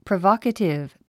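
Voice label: "provocative", English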